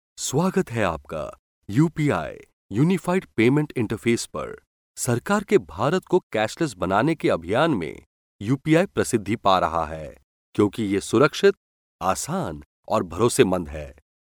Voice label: male hindi voice over talent narrative

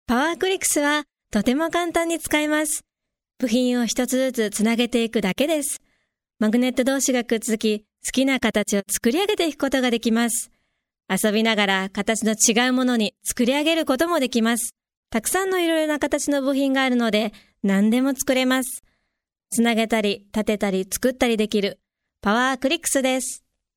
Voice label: female japanese voice over sales

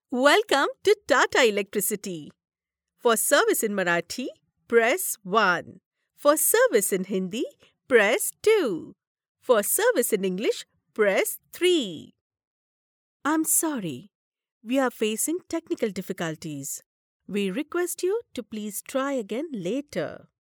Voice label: female indian voice over narrative

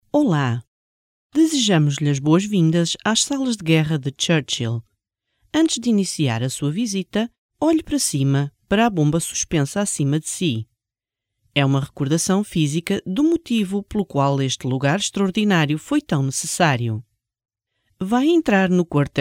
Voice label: female portuguese voice over narrative, portugal

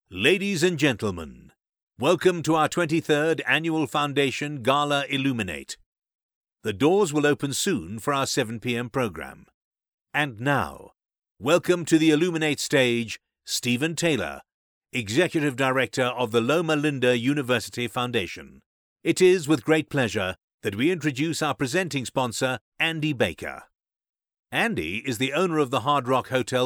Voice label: male british voice over upbeat, english british

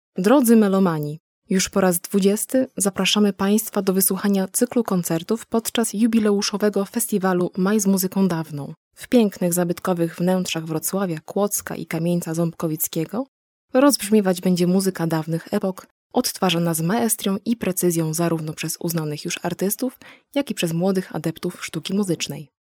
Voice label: female polish voice over sales

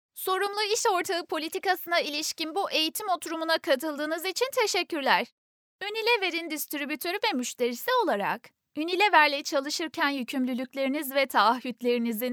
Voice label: female turkish voice over narrative

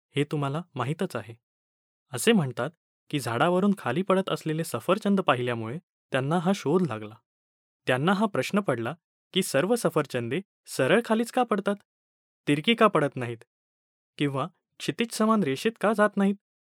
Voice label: male marathi voice over talent narrative

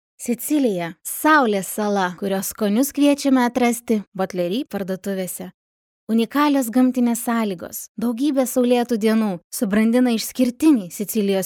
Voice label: female lithuanian voice over narrative

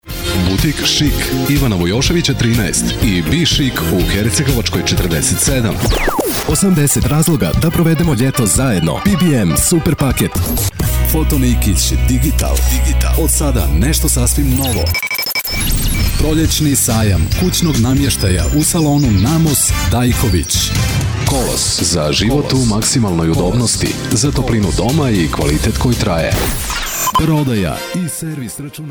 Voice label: male serbian voice over talent sales

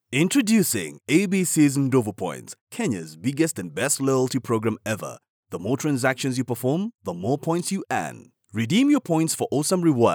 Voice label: male african voice over sales, african english

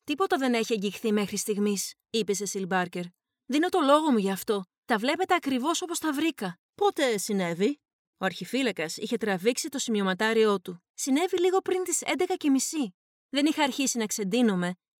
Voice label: female greek voice over narrative

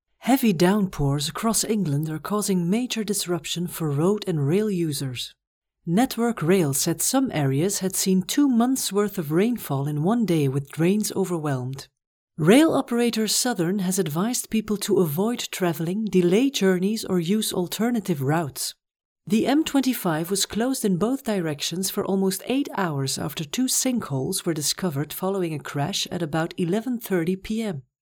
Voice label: female flemish voice over english

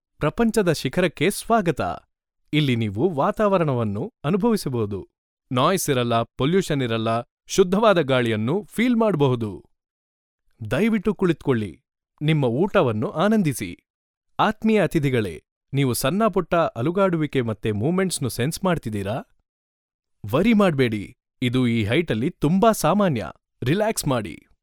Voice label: male kannada voice over talent narrative

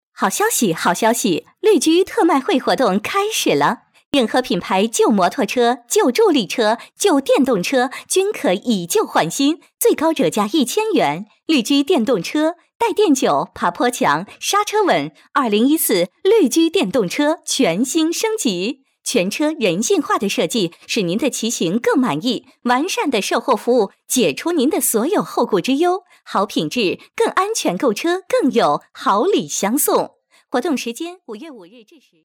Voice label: female chinese voice over sales, mandarin

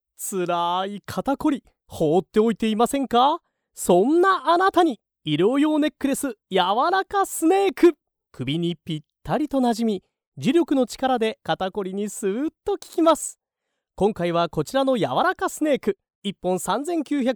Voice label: male japanese voice over talent sales

